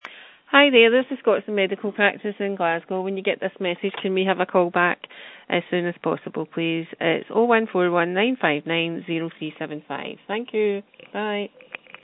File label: call recording